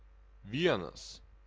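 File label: "vienas", Lithuanian, male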